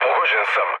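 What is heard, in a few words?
Serbian aircraft damaged mood low, speech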